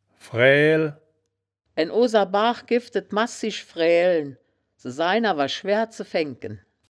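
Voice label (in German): "fraeael", wittlicher; Frääl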